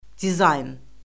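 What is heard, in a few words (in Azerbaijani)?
"dizayn", Azerbaijani; Orfoqrafiya Lüğəti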